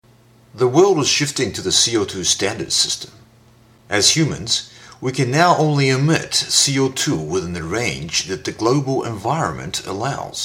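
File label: Voice Sample English corporate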